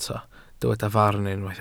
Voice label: "farn", welsh